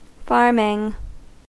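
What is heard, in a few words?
"farming", US English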